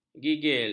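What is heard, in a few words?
"gigil", Malay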